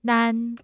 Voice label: nan1, Mandarin tone